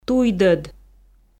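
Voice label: "туйдӧд", Komi, female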